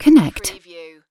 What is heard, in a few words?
"connect", UK female; lynne publishing